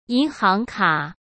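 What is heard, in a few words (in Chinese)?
"银行卡", Mandarin Chinese; hsk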